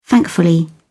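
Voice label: "thankfully", English, British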